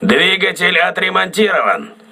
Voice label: voice message engine repaired, crew ships russian